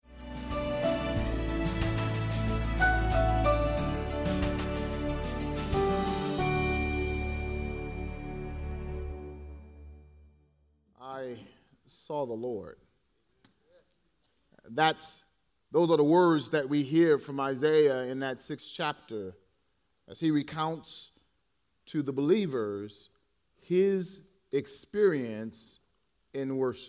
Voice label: English Sermon